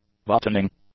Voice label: "buttoning", English; adjectives